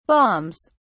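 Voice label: "balms", British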